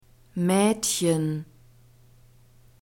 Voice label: "maedchen", German; phonetic script grouped consonants

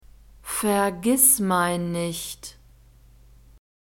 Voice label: "vergissmeinnicht", German; nouns gender feminine

